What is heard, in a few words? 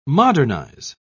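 "modernise", British English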